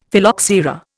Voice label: "Phylloxera", Indian; Dictionary